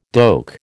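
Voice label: "doek", American English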